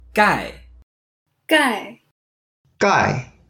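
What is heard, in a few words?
"gai4", Mandarin Chinese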